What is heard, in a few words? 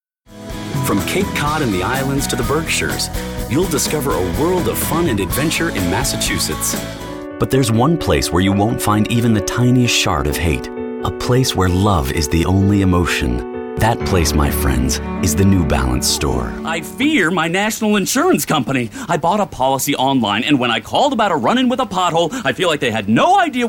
Commercial